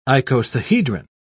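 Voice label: "icosahedron", British English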